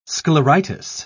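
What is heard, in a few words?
"scleritis", British English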